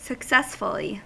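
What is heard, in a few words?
"successfully", US English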